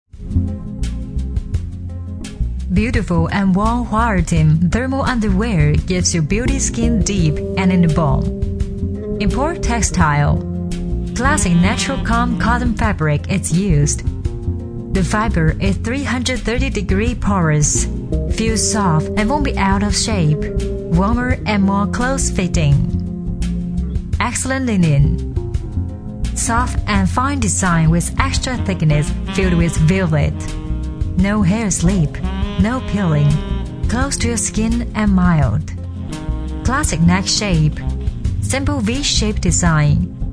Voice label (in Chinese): 女声英文内衣广告